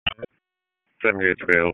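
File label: EMS Dispatch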